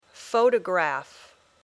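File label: "photograph", English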